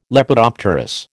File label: "Lepidopterous", American